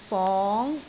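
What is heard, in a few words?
"fong2", Cantonese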